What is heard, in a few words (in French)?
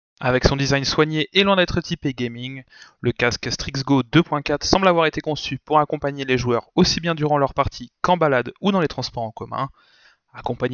test son asus rog strix go 2.4